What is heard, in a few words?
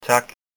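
thanks, Danish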